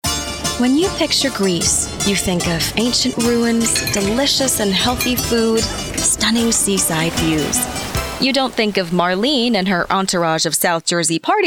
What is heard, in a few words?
TV Narration